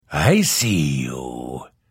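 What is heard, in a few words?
Thief, Vo; Morrowind GOTY GOG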